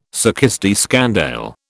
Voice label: "succÊs de scandale", British

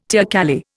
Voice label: "teocalli", Indian

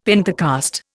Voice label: "Pentecost", Indian; Dictionary

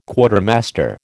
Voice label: "Quartermaster", American

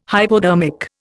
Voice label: "hypodermic", Indian

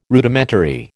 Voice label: "Rudimentary", American

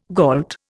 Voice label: "gault", Indian

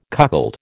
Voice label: "cuckold", American

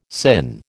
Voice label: "sinh", American

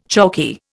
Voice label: "chalky", Indian

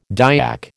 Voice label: "Dyak", American

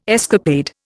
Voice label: "escapade", Indian

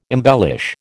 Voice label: "embellish", American

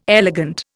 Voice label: "elegant", Indian

